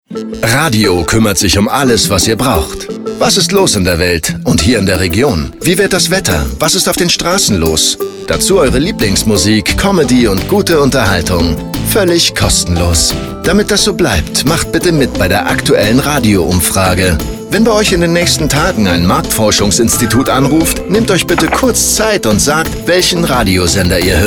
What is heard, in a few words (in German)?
Werbung Radio Marktforschung; OSW